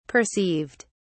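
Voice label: "perceived", British English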